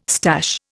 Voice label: "stash", Indian